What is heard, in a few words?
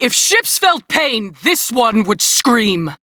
Crew Ship Low, Female; Dialog; en; Warframe; Railjack Crew; New Loka